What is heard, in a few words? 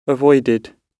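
"avoided", British English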